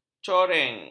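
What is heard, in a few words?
"coreng", Malay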